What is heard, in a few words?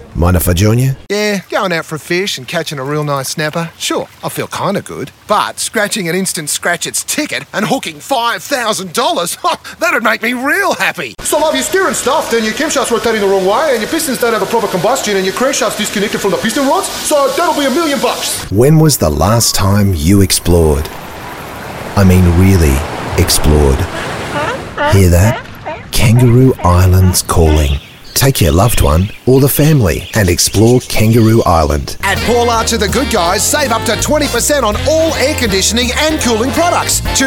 voice demo compilation